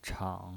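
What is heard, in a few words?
"chang", Mandarin Chinese, third tone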